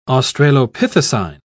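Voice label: "australopithecine", British English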